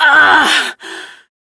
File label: Pain, Female; Warframe; Railjack; Steel Meridian